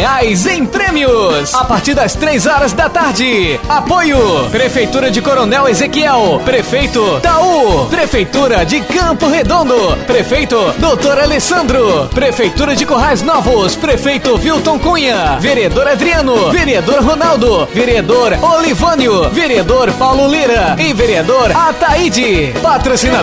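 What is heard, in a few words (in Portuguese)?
comercial vaquejada; vaqueja pronto; serra dos brandoes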